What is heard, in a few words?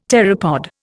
"pteropod", Indian; Dictionary